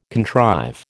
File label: "contrive", American